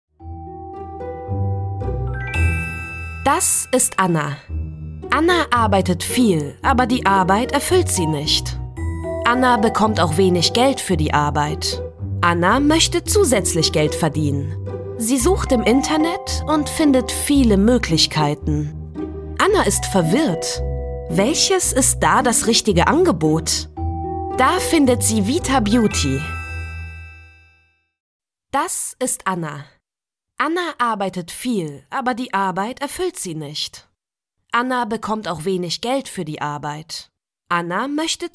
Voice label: 德语, 浑厚配音; 外籍; GERMAN

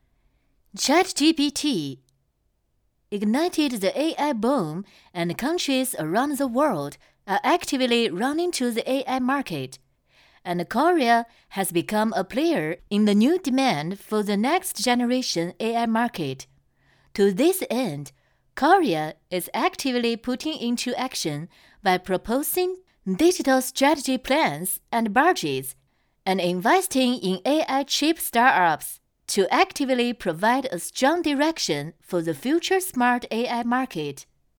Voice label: 女试音; 外籍及国人可试音合集; CHATGPT

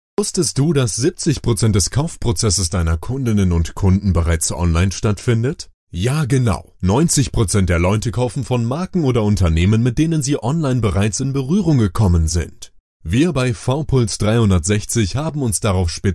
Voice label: 德语男声; 外籍

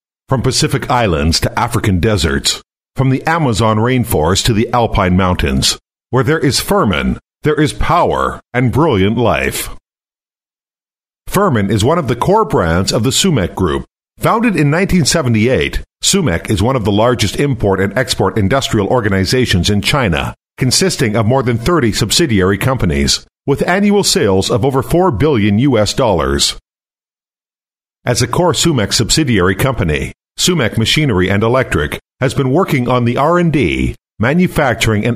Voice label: 男, 英文外籍; A12男-英文外籍

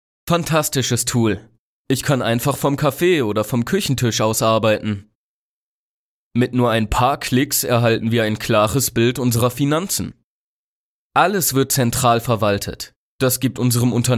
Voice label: 德语男声; 外籍

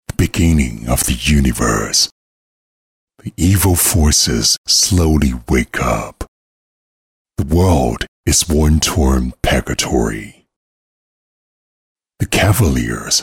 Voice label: 男, 英语; hefei

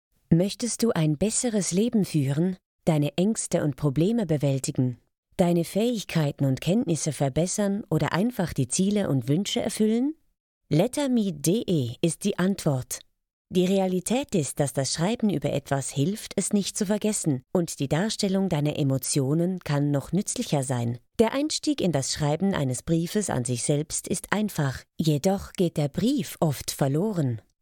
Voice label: 德语女声; 外籍

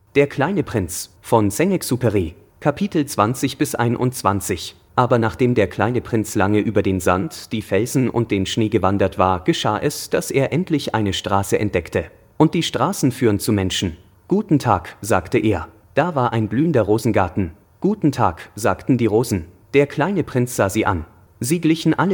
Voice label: 德语; 外籍-德语 GERMAN 2168号老师样音

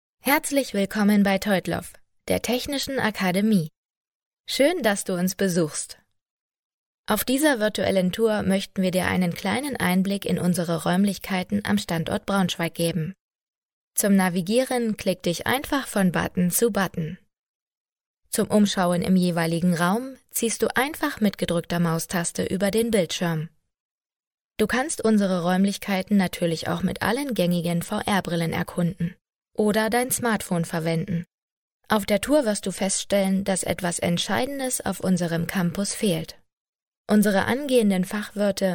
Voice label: 德语女声; 外籍

